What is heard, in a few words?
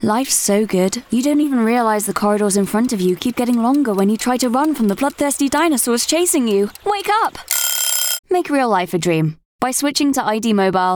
Commercial